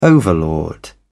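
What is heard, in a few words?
"overlord", British English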